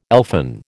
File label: "elfin", American